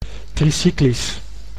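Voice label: "tricyclisch", Dutch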